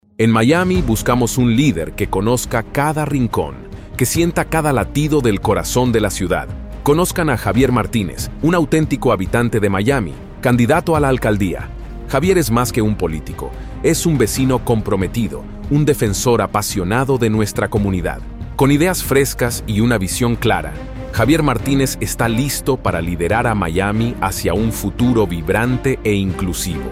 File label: Political Spanish